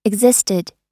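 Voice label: "existed", American English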